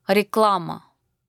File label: "advertisement"